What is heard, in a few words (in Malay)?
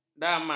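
"damal", Malay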